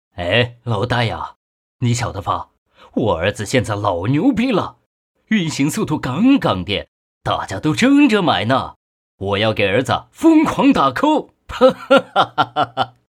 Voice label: 男 对白配音; 父亲节端午节对白配音

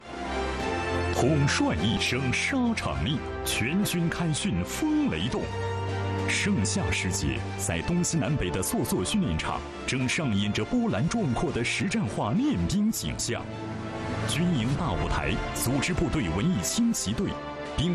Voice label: 男 走进陆军片头; CCTV7

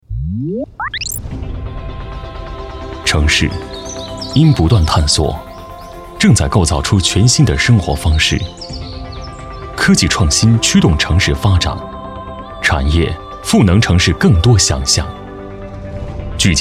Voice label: 男 科技感 创新而立 擎领未来

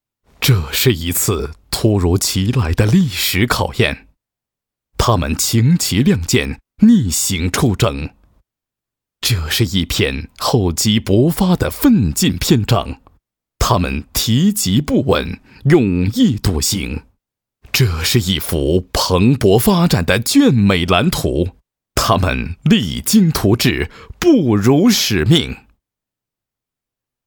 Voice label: 男 专题 浑厚 感情; 疫情专题

